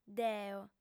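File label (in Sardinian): "deo", female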